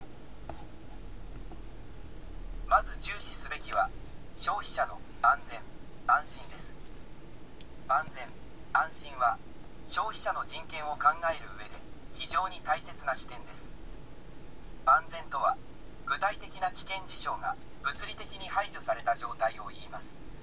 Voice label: elearning; japan; business and human rights